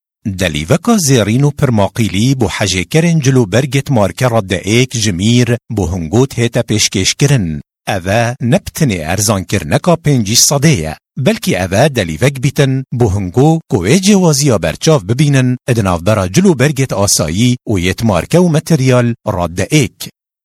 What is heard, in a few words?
Male Bahdini